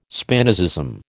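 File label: "Spinozism", American English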